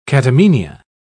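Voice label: "catamenia", British English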